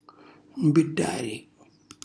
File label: "mbillari", Calabrese; cucina calabrese; Glossario